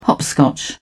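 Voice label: "hopscotch", British English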